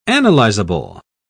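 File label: "analyzable", British English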